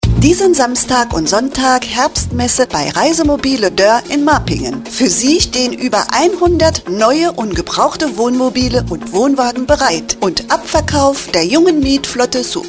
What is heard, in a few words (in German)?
Spot